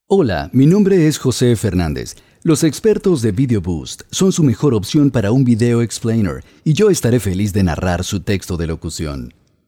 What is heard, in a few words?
Voiceover